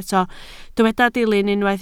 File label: "dilyn", Welsh